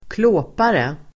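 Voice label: "klåpare", Swedish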